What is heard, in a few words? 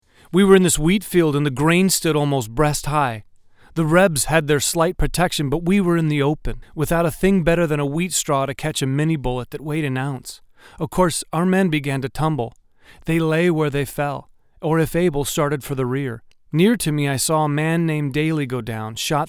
voiceovers; Narration Diary Quote 2 (male); Graphic Design